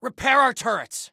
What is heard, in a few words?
Repair Turrets, Soldier Male; Soldier Male 01